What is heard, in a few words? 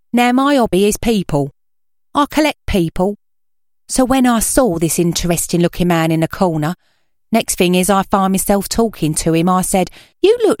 Cockney